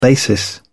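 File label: "basis", British English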